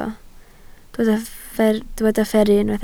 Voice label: "fferru", Welsh

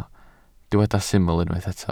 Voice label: "syml" Welsh

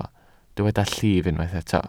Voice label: "llif", Welsh

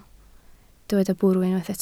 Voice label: "bwrw", Welsh

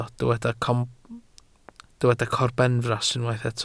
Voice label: "corbenfras" Welsh

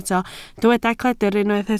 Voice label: "cledr", Welsh

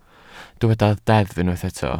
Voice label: "deddf" Welsh

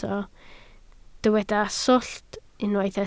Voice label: "swllt", Welsh

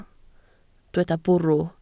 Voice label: "bwrw", Welsh

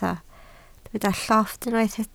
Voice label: "llofft", Welsh

